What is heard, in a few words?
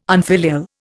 "unfilial", Indian